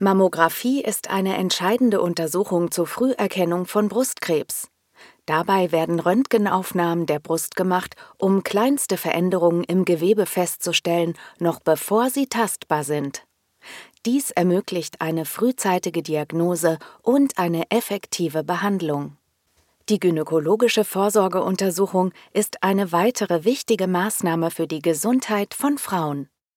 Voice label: german medical narration, german voice actors; professional German voiceover artist and voice actor